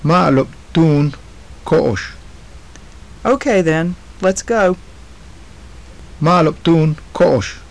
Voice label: phrases, maya